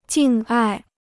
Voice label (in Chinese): "敬爱", Chinese